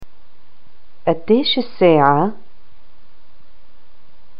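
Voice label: what time is it, Arabic, Palestine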